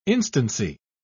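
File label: "instancy", British English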